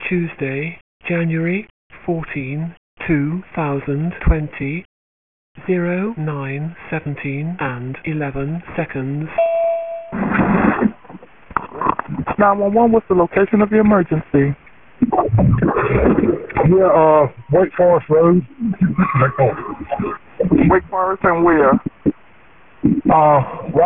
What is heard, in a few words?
911 call; St Albans and Wake Forest; RALEIGH